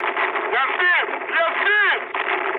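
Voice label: Russian aircraft destroyed mood low, speech; War Thunder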